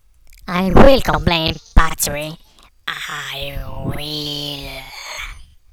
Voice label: funny voice